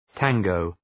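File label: "tango", English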